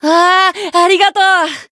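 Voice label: Voice healed, Japanese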